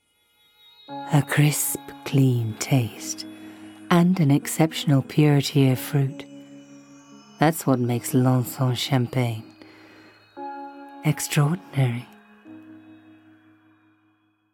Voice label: sexy, smooth, husky; Champagne

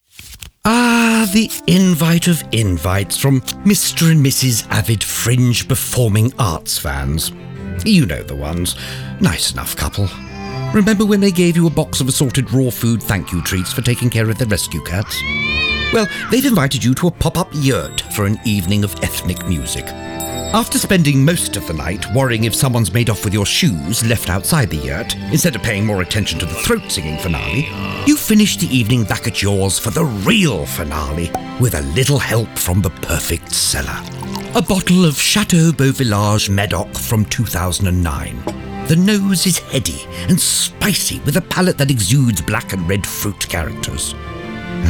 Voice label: Wry, Clear, Charismatic; Perfect Cellar

